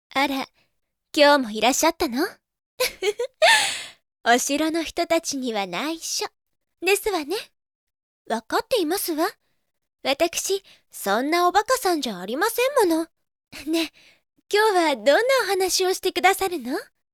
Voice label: lady